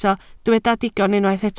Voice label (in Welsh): "digon" Welsh